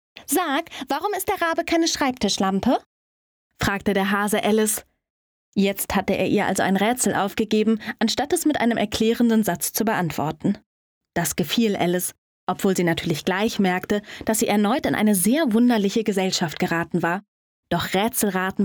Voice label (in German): Trickstimmen; LOFT VOICES